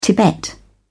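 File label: "tibet", British English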